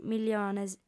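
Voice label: "miliones" Sardinian, female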